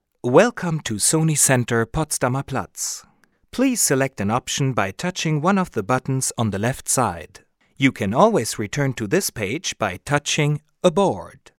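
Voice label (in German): englisch, männlich, Telefonansagen; Sprecher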